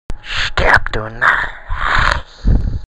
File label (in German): Narr